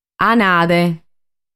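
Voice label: "Anade", Sardinian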